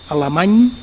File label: "german", catalan